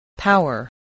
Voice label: "power", English